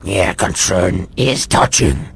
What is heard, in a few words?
answer, vort speech; hl2